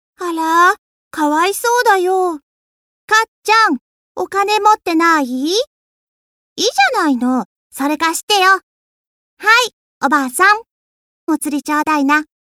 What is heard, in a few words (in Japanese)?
少女